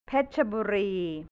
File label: "Petchaburi", Thai, central; เพชรบุรี; แผนที่ประเทศไทย